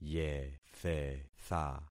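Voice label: "yethetha", concatenated syllables; Affixing experiment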